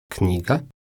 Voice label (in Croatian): "knjiga", croatian